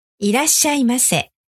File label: "Irasshaimase", Japanese